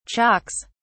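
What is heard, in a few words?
"chalks", British English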